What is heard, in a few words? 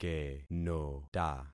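"kenota", concatenated syllables; Affixing experiment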